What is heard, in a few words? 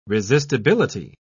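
"resistibility", British English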